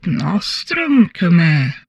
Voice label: humanoid female; Stellaris; humanoids